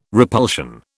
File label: "repulsion", British